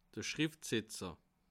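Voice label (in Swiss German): "schriftsetzer", Bas Rhin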